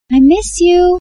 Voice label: i miss you sms notification english